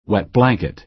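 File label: "wet blanket", American English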